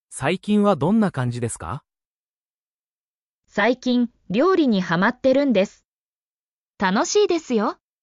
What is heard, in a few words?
anime, Natural Japanese Conversations; "How have you been?" in Japanese